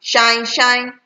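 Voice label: "shineshine", Nigerian Pidgin, female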